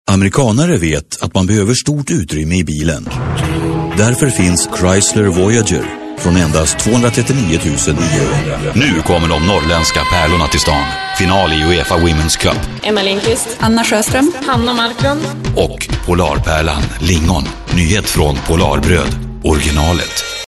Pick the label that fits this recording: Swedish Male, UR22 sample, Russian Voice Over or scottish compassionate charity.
Swedish Male